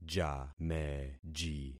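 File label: "jameji", concatenated syllables; Affixing experiment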